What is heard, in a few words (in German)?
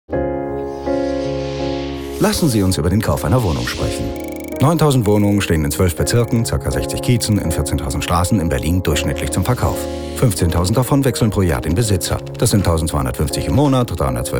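Image, deutsch Männer; sprecher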